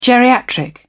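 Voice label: "geriatric", British English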